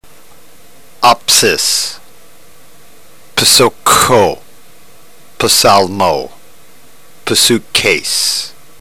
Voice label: drill, ntgreek